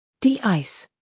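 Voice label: "humor", British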